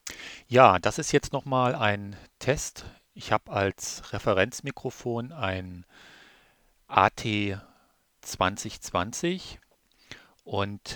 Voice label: Jabra UC Voice 750; Screencasting; Screencasting für Einsteiger und Anfänger